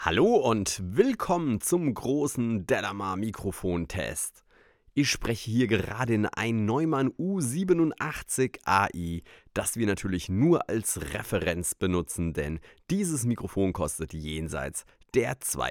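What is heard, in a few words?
speech male, Neumann U87Ai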